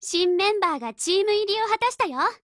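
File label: BUILD OVER, Japanese, Voice; Lusa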